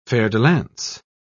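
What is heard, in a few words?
"fer-de-lance", English, British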